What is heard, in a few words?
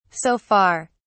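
"so far", British English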